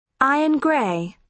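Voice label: "iron grey", British English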